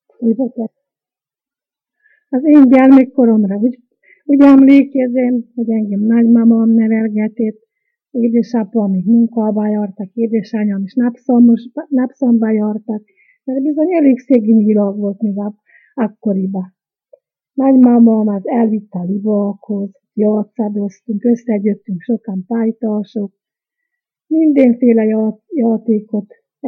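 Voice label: dialect collection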